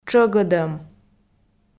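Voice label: "тшӧгӧдӧм", Komi, female; audio dict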